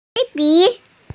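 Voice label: "qian1bi3", Mandarin Chinese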